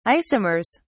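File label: "isomers", British English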